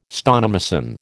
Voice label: "stonemason", American

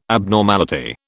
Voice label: "abnormality", British

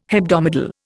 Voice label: "hebdomadal", Indian

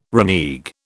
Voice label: "renege", British

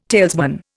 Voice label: "talesman", Indian

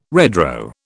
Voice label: "redraw", British

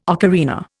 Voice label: "ocarina", Indian

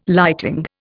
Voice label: "lighting", Indian

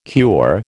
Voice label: "cure", American

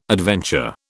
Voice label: "adventure", British

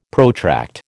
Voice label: "protract", American

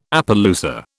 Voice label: "Appaloosa", British

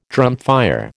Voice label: "drumfire", American

